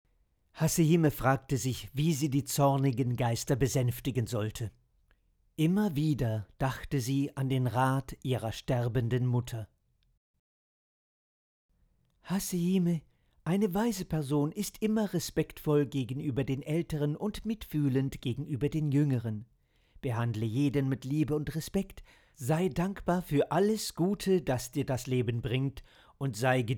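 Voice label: german male narration; voice demos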